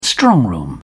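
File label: "strongroom", British English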